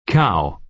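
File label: "cow", American English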